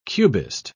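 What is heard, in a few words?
"cubist", British English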